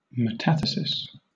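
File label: "metathesis", British English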